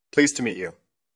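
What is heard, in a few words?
Voice Acting, Spanish, male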